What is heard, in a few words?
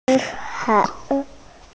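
"si1kao3" Mandarin Chinese, female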